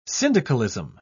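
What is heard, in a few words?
"syndicalism", British English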